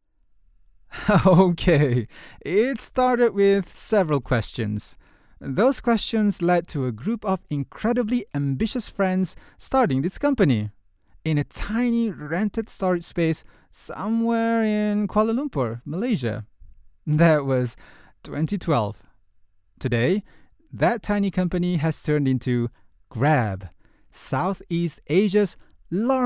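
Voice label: Malay English, male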